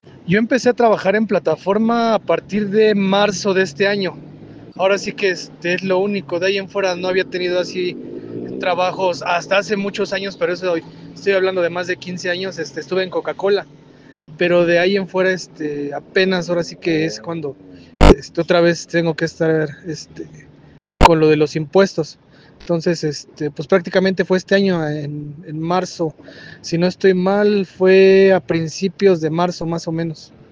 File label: whatsapp ptt